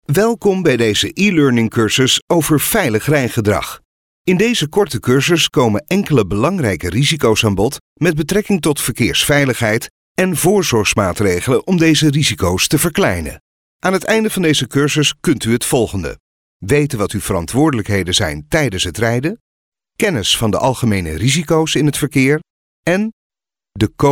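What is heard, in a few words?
Dutch, male, elearning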